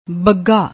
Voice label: "baggah", Punjabi

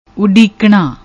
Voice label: "Udeekna", Punjabi; ਉਡੀਕਣਾ

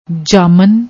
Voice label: "jaman", Punjabi

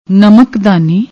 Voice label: "namak daani", Punjabi; ਨਮਕਦਾਨੀ

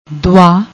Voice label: "dua", Punjabi; ਦੁਆ

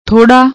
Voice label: "thohrra", Punjabi

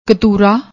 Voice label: "ktoora", Punjabi; ਕਤੂਰਾ

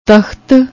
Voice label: "takhat", Punjabi

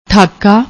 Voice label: "thaaka", Punjabi; ਠਾਕਾ

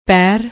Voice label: "pair", Punjabi

